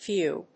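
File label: "phew", English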